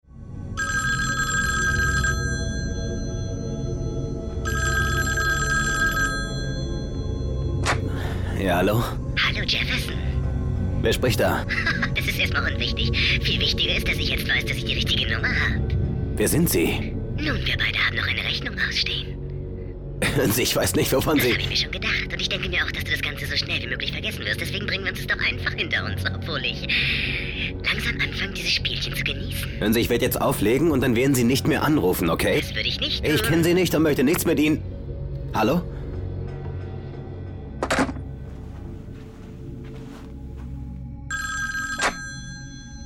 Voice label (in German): hoerspiel